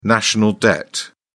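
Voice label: "national debt", British English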